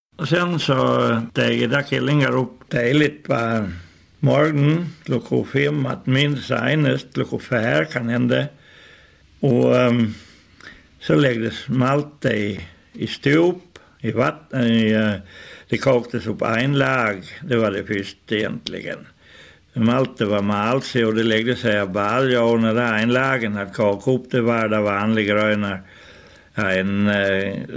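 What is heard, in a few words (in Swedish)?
Lau Gotland, dialekter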